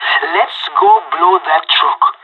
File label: radio lock n load, pirate; Counter Strike Model Pack; CS:GO